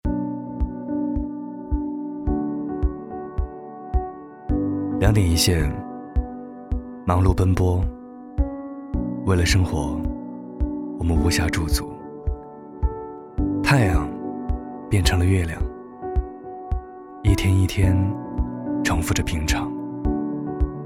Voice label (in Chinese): 男, 独白, 年轻走心